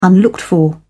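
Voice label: "unlooked-for", English, British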